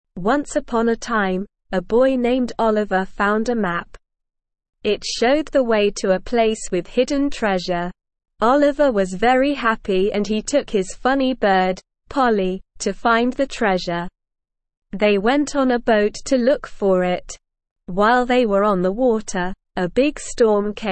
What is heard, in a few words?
ESL Short Stories for Kids Beginner SLOW Reading; Olivers Ocean Adventure